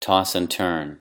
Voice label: "toss and turn" idiom

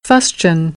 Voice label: "fustian", American

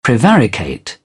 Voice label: "prevaricate", British; AmericanBritish

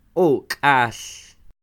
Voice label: "O'kal", Quiché; cien; one hundred; INALI; UTexas; Números

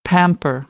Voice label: "pamper", American English; ɚ - əɹ

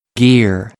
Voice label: "gear", American; AmericanBritish

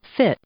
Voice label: "fit", English; i-ɪ; adjective

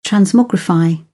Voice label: "transmogrify", British English; ə - ɪ

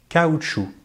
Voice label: "caoutchouc", French; easypronunciation

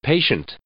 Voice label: "patient", English; adjective

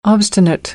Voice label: "obstinate", American English; ɑː - ɒ

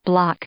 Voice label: "block", English; noun; Ingles

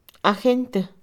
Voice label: "agente", Mixe, Mujer; Moderno; INALI

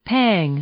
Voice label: "pang", English; noun

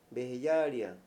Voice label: "valorar", Mayo; Posesión

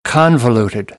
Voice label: "convoluted", American; English

